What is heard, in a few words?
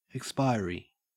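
"expiry", Australian English; pronunciations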